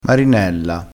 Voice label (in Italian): "marinella", crespinese